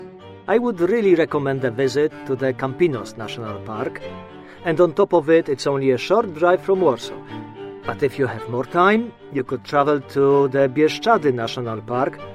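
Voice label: Polish English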